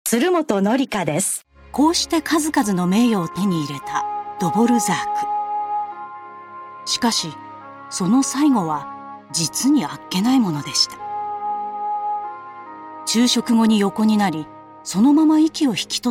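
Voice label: female tv documentary, English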